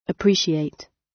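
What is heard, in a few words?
"appreciate", British English; Language, linguistics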